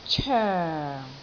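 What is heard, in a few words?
"coeng4", Cantonese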